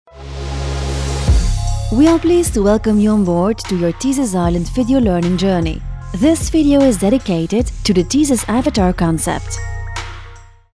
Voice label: Corporate English